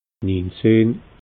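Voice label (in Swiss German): "niinzehn", Alsatian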